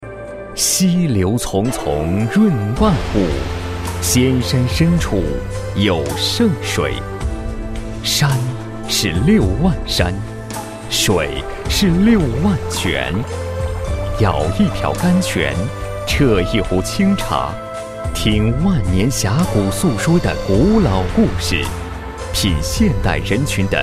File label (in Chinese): 男 旅游广告, 国语男声配音 磁性; 男98磁性专题